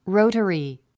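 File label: "rotary", English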